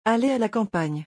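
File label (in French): "Aller a la campagne", French; vocabulaire; le lexique des vacances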